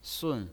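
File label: "sun", Mandarin Chinese pinyin, fourth tone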